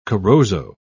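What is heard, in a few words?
"corozo", British English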